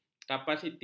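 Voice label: "kapasiti", Malay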